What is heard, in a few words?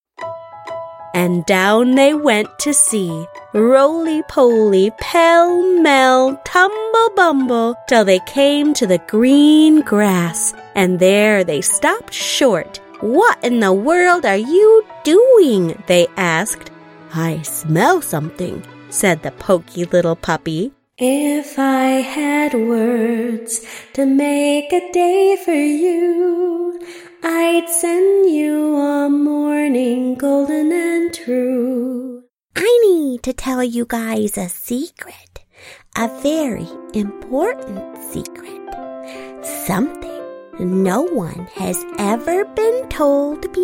Children's Material